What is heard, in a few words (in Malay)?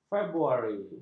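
"februari", Malay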